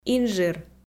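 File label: "инжир", Komi, female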